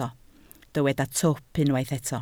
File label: "twp", Welsh